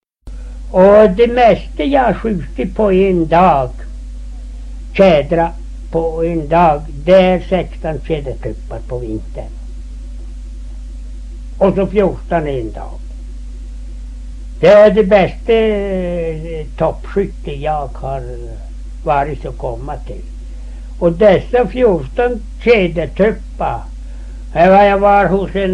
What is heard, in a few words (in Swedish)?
Arjeplog, dialekter